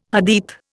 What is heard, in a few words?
"Hadith", Indian